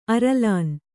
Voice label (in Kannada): "ಅರಲಾನ್", Kannada